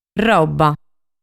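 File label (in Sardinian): "Roba", Sardinian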